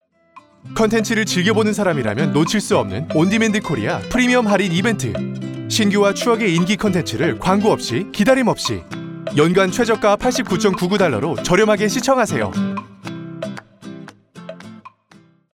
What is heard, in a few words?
Korean promos; coreano